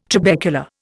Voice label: "trabecula", Indian